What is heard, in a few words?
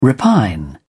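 "repine", British English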